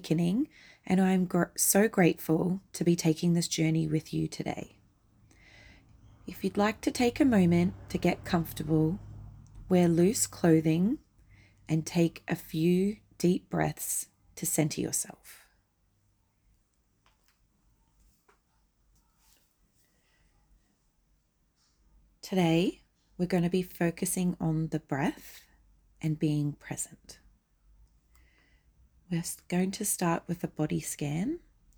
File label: Breathwork Meditation; Anxiety; 10 minute guided meditation focusing on breathwork anxiety